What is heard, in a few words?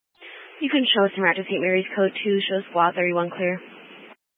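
Fire Dispatch, scanner audio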